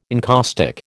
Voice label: "encaustic", American

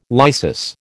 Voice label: "lysis", American

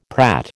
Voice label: "prat", American

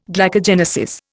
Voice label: "glycogenesis", Indian

